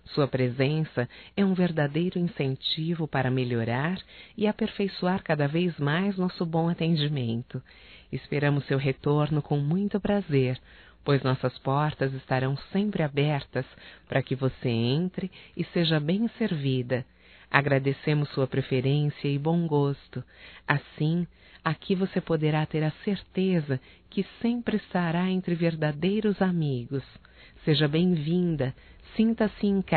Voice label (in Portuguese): CLIENTE ADVOGADA, Telemensagens, fem; Profissionais; Telemensagens 2017